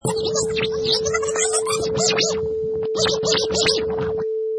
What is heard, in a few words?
Airport Security Announcement